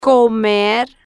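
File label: "comer", Spanish, slow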